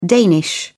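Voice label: "danish", British English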